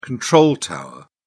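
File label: "control tower", British English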